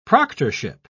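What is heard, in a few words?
"proctorship", English, British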